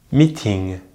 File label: "meeting", French; easypronunciation